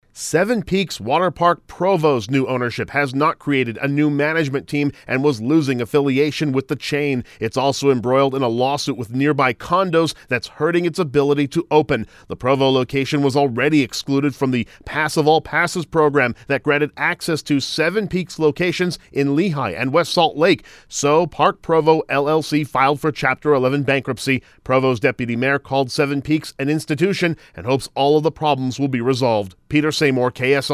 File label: VOICE PSA; SEVEN PEAKS